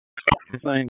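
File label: FIRE Operations, p25; FIRE OPS 2; wake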